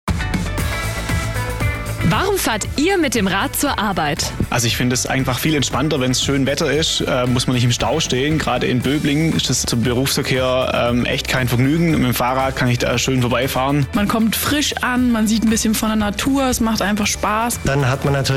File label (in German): Radkultur Spot; SSC-Services; Hitradio antenne1